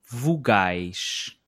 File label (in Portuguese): vogais, Portuguese